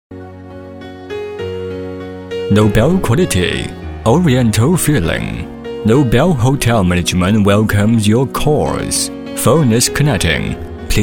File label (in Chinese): 男英 其他 彩铃 彩铃音; 英语